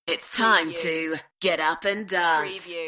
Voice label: UK female

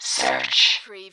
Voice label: Robot voice, "search"; lynne publishing

